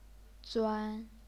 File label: "zuan1", chinese pinyin